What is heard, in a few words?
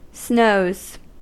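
"snows", US English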